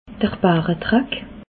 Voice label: "baredrack", alsace

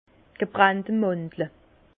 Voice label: "gebrannte mandle", Bas Rhin; vin; praline

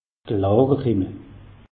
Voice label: "klarer", alsace; meteo

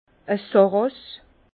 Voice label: "sarras", Bas Rhin; vin

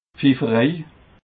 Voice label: "pfifferei", alsace

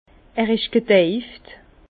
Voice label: "gedeift", Bas Rhin

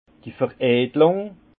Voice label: "veredlung", alsace; vin; affinement

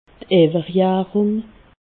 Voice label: "owwerjarung", alsace

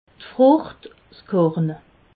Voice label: "frucht", alsace

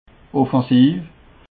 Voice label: "offensiv", alsace

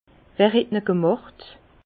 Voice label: "gemacht", Bas Rhin; vin; vinifié par qui

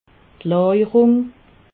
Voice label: "lajerung", alsace

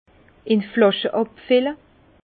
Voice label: "flasche", Bas Rhin; vin; mise en bouteille

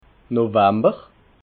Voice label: "novamber", alsace

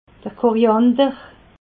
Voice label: "koriander", alsace

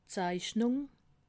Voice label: "zeichnung", alsace

